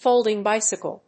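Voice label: "Folding bicycle", English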